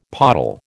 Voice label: "pottle", American